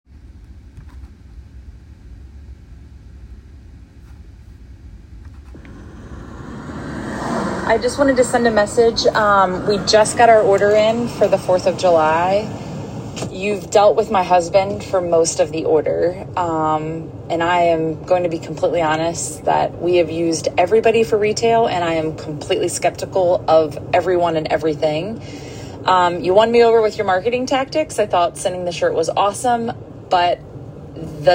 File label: Audio Testimonial; Rocktown